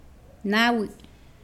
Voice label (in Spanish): Nawat palabras cuatro; pipil